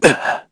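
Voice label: Vox Landing, Korean; Shakmeh; kings raid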